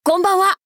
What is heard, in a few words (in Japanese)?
「こんばんは」, 男の子 王道系少年主人公